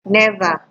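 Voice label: "never", Nigerian Pidgin, female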